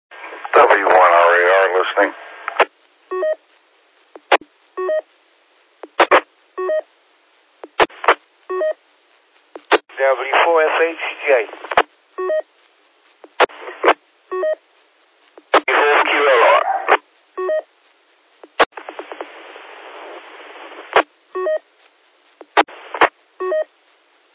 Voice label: qso rec repeater logic